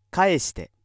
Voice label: male